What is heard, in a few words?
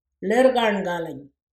male teacher lirrgarngaleny